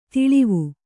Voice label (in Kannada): "ತಳಿವು", Kannada